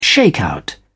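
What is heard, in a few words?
"shakeout", British English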